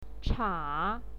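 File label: "cha", Mandarin Chinese, third tone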